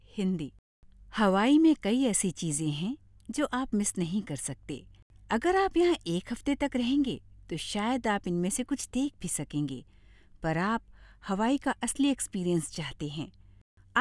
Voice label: HINDI F; GOOGLE